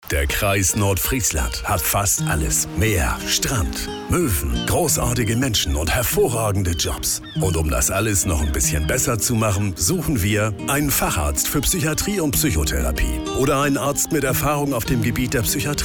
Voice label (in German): nordisch